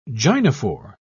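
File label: "gynophore", British English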